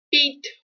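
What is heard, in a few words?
"spina" Russian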